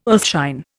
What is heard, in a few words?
"earthshine", Indian